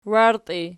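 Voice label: "wairtii" nominative, Archi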